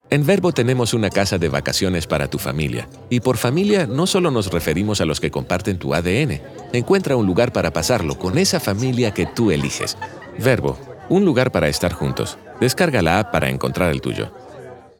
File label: male spanish venezuelan neutral spanish latam commercial; vrbo